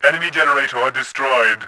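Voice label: enemy generator, voice, Bot; Tribes2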